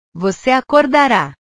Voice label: "voce acordara", portugues; Tú despertarás

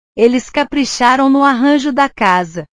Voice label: "caprichar", portugues

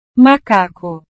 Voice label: "macaco", portugues

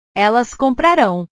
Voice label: "elas comprarao", portugues; portugues/verbos

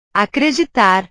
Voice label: "acreditar", Portuguese

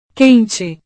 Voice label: "quente", portugues; caliente

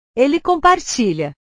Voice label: "ele compartilha", portugues; compartilhar; portugues/verbos

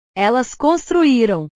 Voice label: "elas construiram", portugues; portugues/verbos

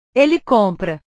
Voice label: "ele compra", portugues; comprar; portugues/verbos

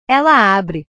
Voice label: "ela abre", portugues; verbos

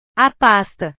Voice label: "a pasta", portugues

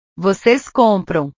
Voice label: "voces compram", portugues; comprar; portugues/verbos

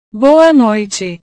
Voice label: "boa noite", portugues

